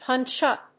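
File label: "punch-up", English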